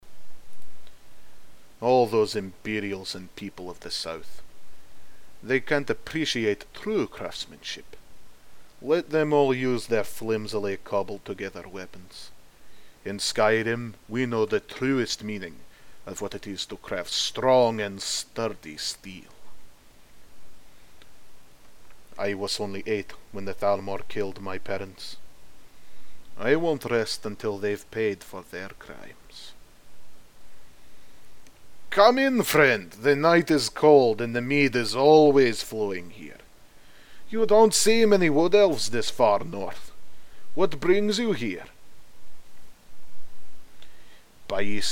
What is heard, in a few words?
nord russian